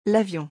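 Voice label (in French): "l'avion", French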